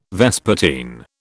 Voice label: "vespertine", British

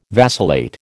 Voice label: "vacillate", American

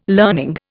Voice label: "learning", Indian

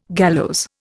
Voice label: "gallows", Indian

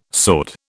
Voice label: "sword", British

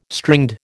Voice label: "stringed", American

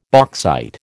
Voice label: "bauxite", American

